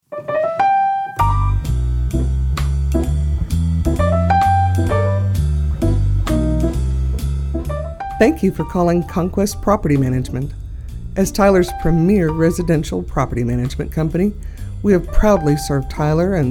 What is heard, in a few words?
On Hold Message; CPM